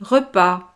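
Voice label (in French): "repas", French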